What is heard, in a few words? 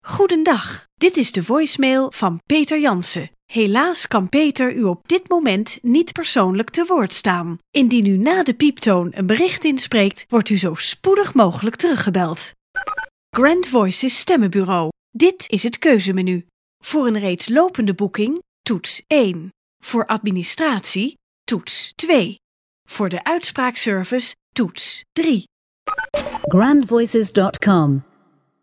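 Dutch, female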